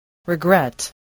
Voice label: "regret", spelling voice